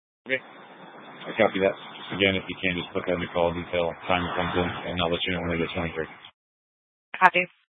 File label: Police Dispatch